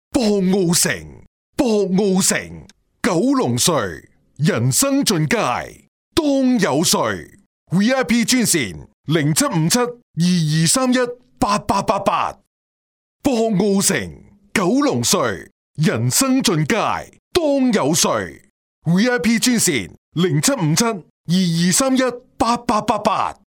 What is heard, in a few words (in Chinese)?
男粤 广告 地产 楼盘 激情, 粤语